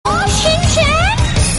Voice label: shouting; shinchan